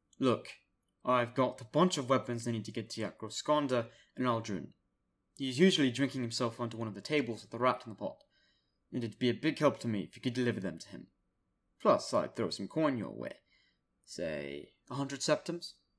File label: imperial british style